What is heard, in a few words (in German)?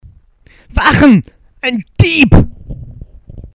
ALT - Dieb; Wachen! ein Dieb!; Nehrim - Am Rande des Schicksals